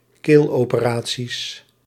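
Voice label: "keeloperaties", Dutch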